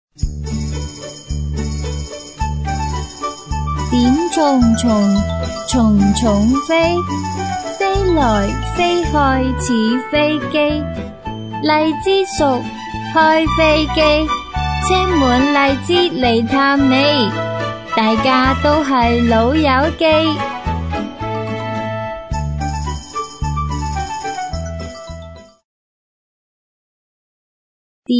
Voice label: 女声童声童谣 粤语; 点虫虫

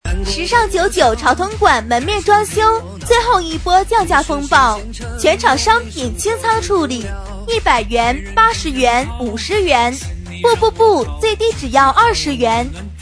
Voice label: 促销 时尚99潮童馆

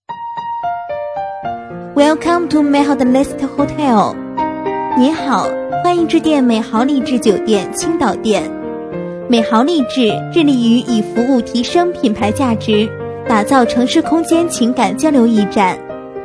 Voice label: 彩铃中英文; 丽致酒店

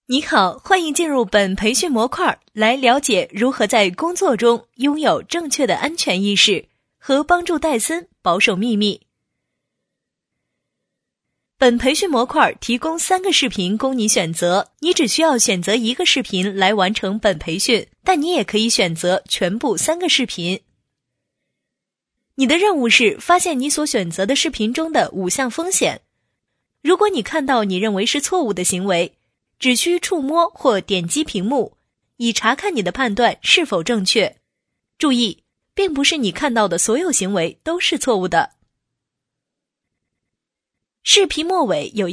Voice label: 女声 课件 培训 ppt

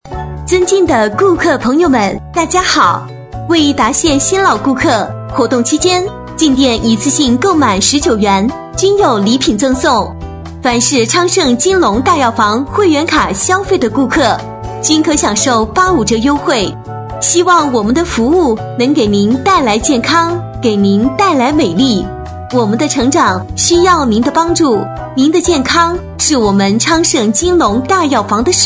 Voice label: 女声药房舒缓配音

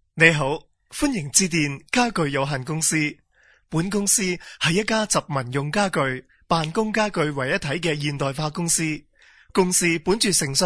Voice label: 男声 粤语 彩铃

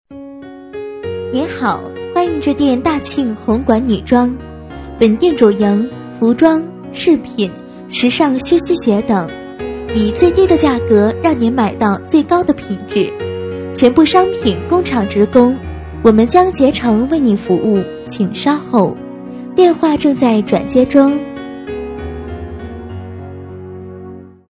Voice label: 彩铃 欢迎致电 女装; 大庆红馆

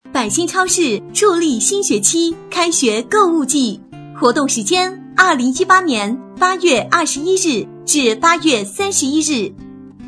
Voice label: 女声百信超市播音

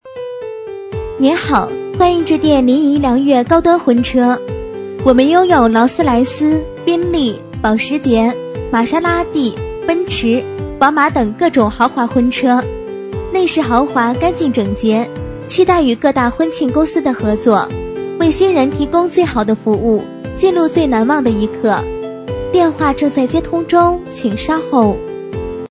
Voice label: 彩铃，动感; 临沂梁悦高端婚车

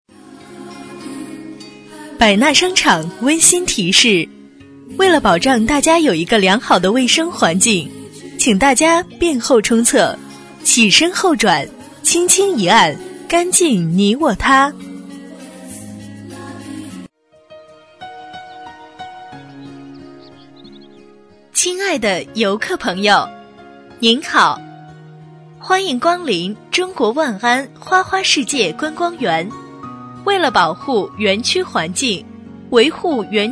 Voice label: 女声【提示音】商场景区到站手机提示

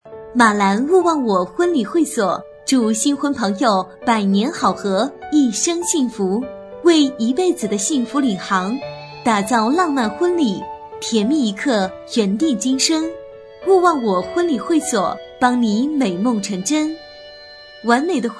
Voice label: 女声婚庆公司

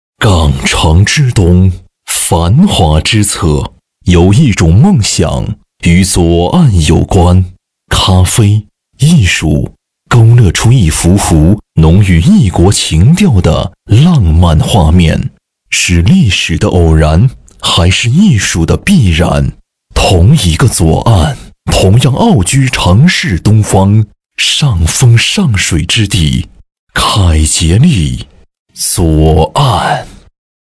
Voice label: 男声 地产; 凯捷利左岸

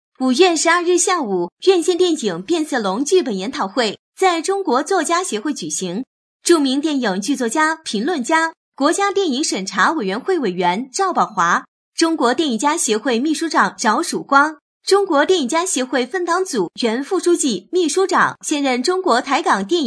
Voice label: 女声娱乐新闻

